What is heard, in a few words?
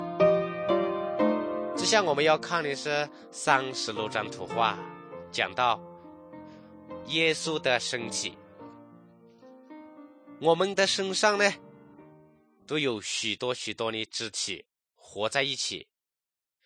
good news shan, drama